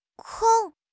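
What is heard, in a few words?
"kong1", Chinese; HSK4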